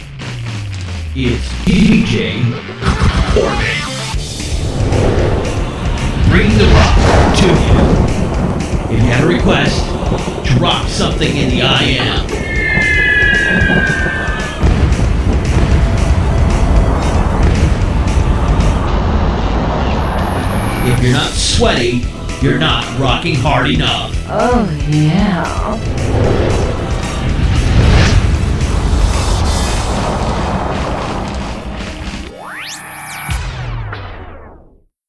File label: sweeper